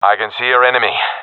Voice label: sees enemy, professional epic; Counter Strike Model Pack; CS:GO

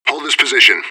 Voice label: radio bot hold; fbihrt

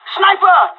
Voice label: sniper warning, pirate; vo; Counter Strike Model Pack; CS:GO

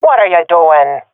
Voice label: sees friend killed, swat fem; Counter Strike Model Pack; CS:GO

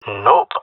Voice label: negative, jungle male; player vo; Counter Strike Model Pack; CS:GO

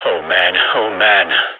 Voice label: scared emote; vo; gign

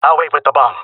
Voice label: guarding bombsite, jungle male; vo; agents; Source Model Packs; Counter Strike Model Pack; CS2

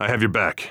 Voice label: covering friend, heavy; vo; Counter Strike Model Pack; CS:GO; phoenix coop

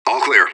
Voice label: radio bot clear; fbihrt

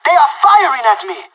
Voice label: radio taking fire; Counter Strike Model Pack; CS:GO

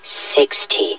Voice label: "sixty", hev suit; Half Life Alyx

